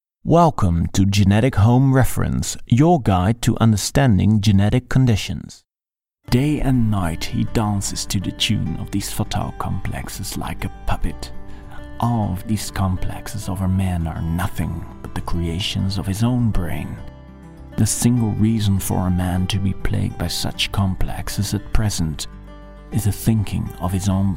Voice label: Dutch English M, accent of the netherlands